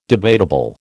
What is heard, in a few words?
"debatable", American